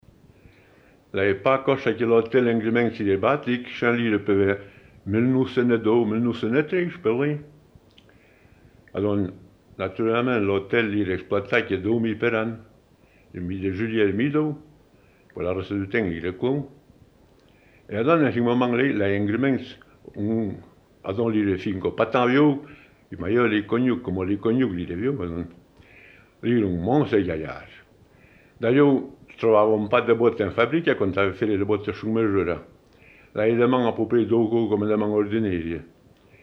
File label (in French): patois de Grimentz Anniviers; histoire; patois valaisans; Pas si bete que ca